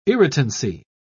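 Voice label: "irritancy", British English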